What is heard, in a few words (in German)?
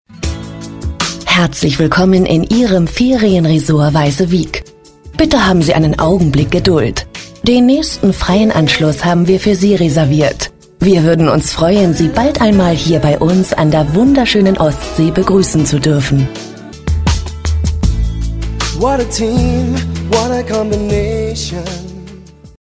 Telefonansage